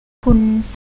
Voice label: "kun", Thai; travel